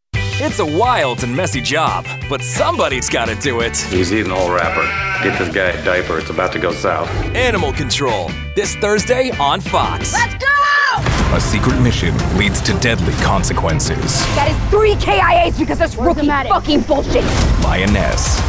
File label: men promos; Energetic and edgy. Intelligent and witty Millennial voice.